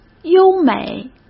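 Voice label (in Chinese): "优美", Mandarin Chinese; chinese-flashcards; hsk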